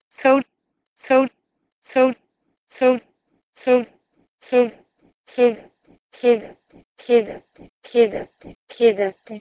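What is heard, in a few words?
Proto-Indo-European keud to BCMS kidati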